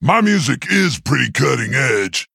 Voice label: Male Quilboar Hero Power